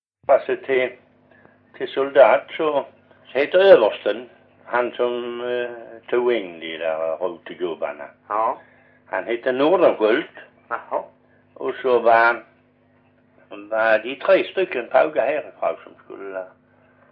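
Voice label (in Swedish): Perstorp Skåne, dialekter; Folkminnen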